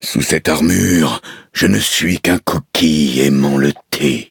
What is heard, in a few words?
loading, fr